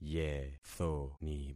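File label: "yethoni", concatenated syllables; Affixing experiment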